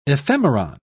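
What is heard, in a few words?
"ephemeron", English, British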